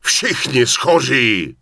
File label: Human Male Warrior NPC Greeting; wowpreklad; czwow